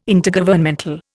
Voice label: "Intergovernmental", Indian